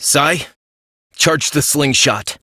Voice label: Operator Voice; New War; Torpedo